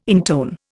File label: "intone", Indian